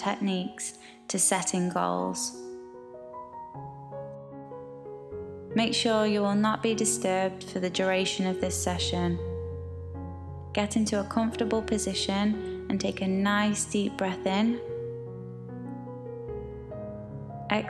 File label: Guided Visualisation Meditation